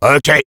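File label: Sniper yes, French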